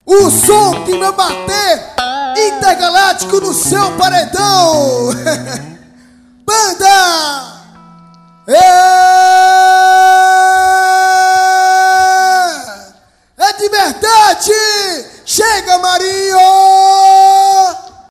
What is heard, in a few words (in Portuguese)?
chamada ao vivo; passe natal feliz